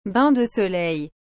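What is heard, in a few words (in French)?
"bain de soleil", French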